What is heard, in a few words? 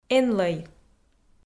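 "энлӧй", Komi, female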